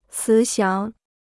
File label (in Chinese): "慈祥", Chinese